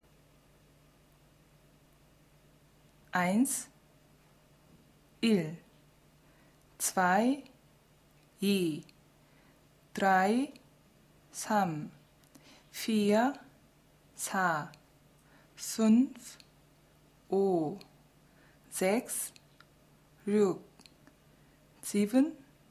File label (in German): Deutsch Koreanisch